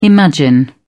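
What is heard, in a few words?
"imagine", British English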